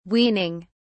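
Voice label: "weaning", British English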